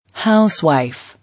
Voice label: "Housewife", English

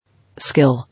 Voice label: "Skill", English

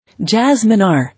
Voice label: "Jasmine", English

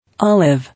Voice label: "Olive", English

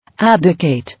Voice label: "Abdicate", English

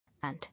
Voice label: "And", English

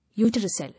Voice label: "Uterus", English

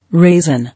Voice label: "Raisin", English

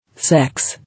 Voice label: "Sex", English

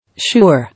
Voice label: "Sure", English

